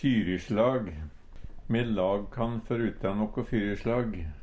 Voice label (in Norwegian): "fyrisjLag", Numedalsmål; Eksempel på bruk Me lag kann førruta noko fyrisjLag.